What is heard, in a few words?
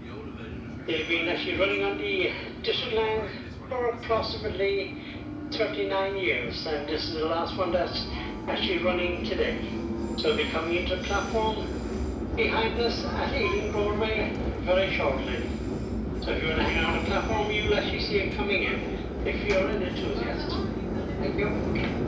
S stock D announcement; Tube